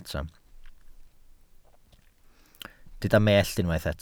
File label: "mellt", welsh